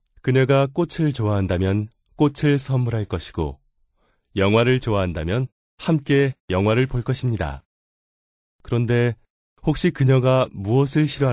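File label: Korean male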